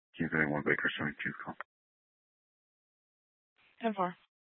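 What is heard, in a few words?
Police Department Dispatch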